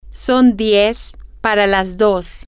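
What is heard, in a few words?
its ten to two, Spanish, female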